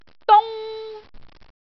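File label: "dung1", Cantonese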